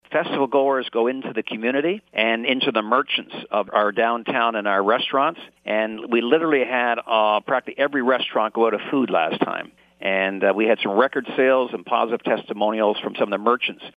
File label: Commercial Impact